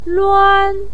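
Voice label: "luan1", Chinese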